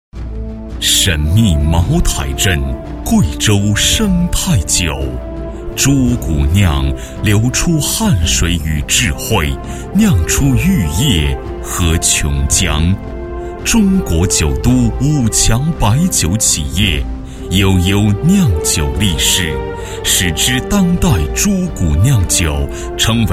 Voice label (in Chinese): 男 彩铃 大气酒企业, 国语男声配音